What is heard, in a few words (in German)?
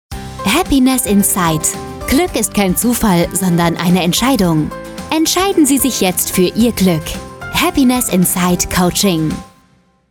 Funkspot